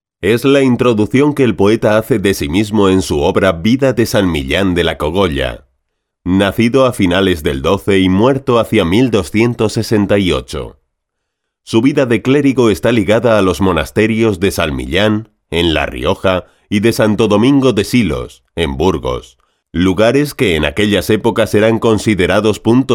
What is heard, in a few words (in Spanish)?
narracion documental